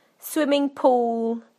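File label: "swimming pool", British English; PictureDictionary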